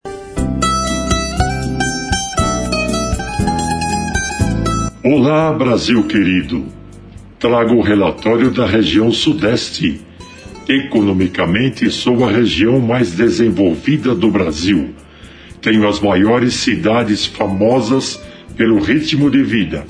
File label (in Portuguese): Voz Oculta com fundo musical; Sudeste